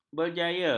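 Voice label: "berjaya", Malay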